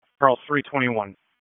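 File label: Police Northeast District Dispatch; Raleigh; wake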